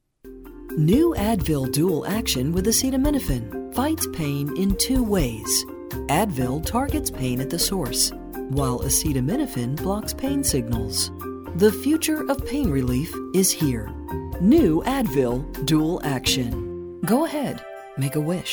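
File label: COMMERCIAL, voiceover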